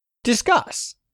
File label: "discuss", English; 3000 words